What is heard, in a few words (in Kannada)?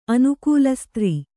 "ಅನುಕೂಲಸ್ತ್ರೀ", Kannada